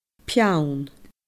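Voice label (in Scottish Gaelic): "peann", Scottish Gaelic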